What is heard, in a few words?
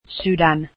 finnish heart